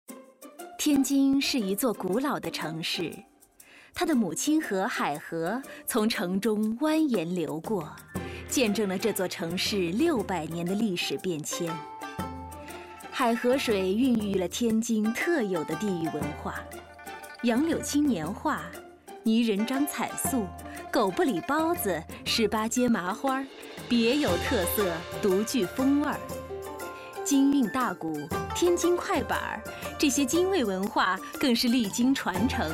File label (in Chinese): 解说; 天津解说